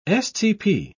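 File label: "stp", British English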